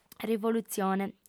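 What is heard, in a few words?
"rivolutzione", Sardinian female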